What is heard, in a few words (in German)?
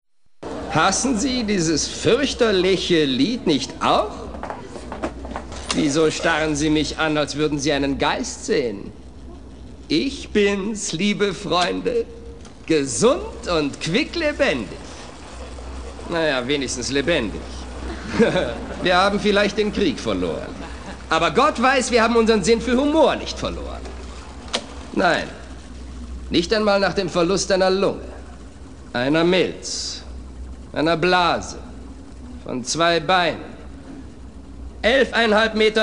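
synchron, sprecher; LOFT VOICES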